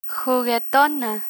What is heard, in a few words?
"juguetona", Spanish